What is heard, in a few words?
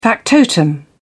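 "factotum", British English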